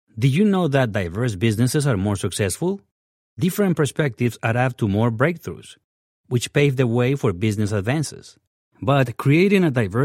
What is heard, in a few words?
english with latino accent